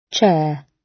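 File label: "chair", British English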